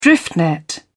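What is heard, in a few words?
"drift net", British English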